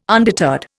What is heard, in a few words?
"undeterred", Indian